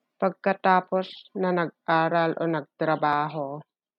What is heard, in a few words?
Tagalog; after school or work - pagkatapos na nag-aral o nagtrabaho